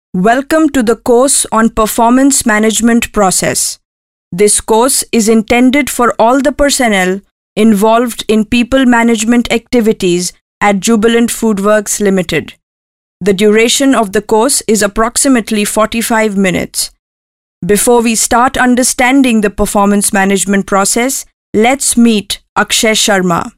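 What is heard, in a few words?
English, female voice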